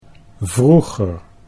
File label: "vroeger", Dutch